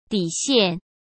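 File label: "底线", Mandarin Chinese; hsk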